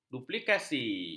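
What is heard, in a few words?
"duplikasi", Malay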